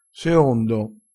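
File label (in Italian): "seondo", dialettale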